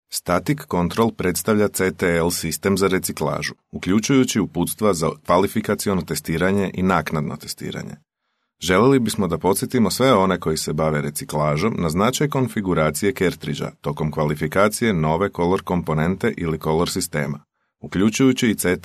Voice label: Serbian, corporate

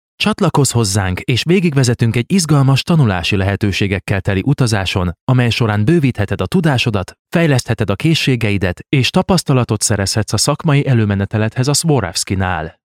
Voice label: Hungarian M Explainer; voice over

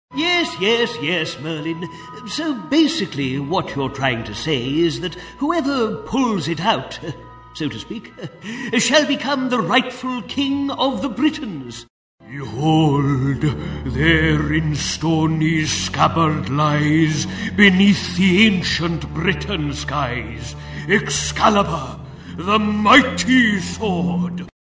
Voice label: Eng character

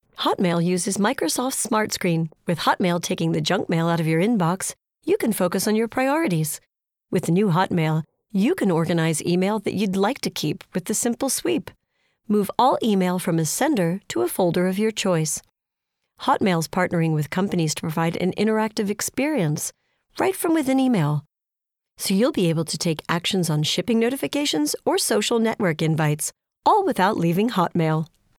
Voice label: English US F Explainer, american; voice over

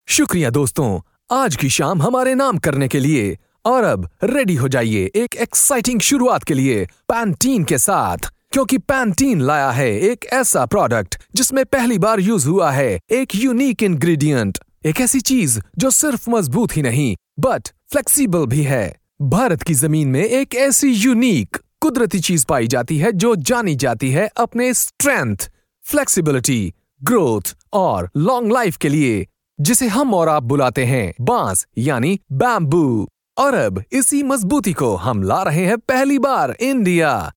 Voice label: Hindi M

